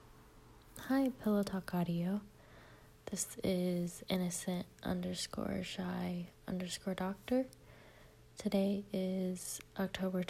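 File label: Female Verification Request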